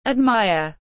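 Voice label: "admire", English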